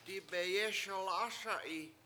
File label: "dibeyES1l ASa7i" I will shoot at him, Washo